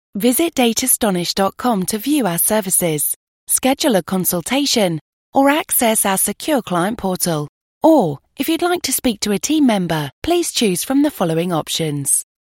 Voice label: english british ivr